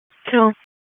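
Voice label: Wake Forest Police Dispatch, p25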